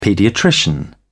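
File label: "paediatrician", British English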